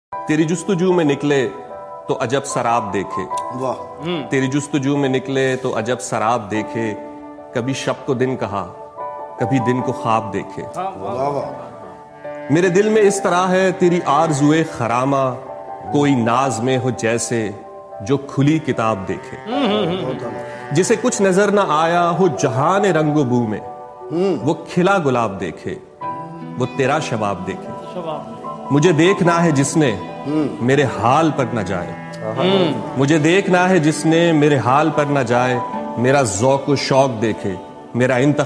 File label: Shayari Ringtone